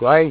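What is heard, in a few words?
"kwai", Abenaki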